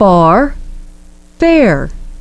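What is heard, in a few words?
"far" "fare", English pronunciation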